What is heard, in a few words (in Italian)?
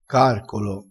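"carcolo", dialettale crespinese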